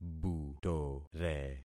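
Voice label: "butore", concatenated syllables; Affixing experiment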